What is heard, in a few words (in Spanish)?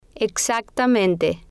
"exactamente", spanish